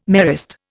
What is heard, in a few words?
"Marist", Indian